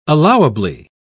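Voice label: "allowably", British English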